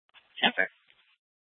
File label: PD Dispatch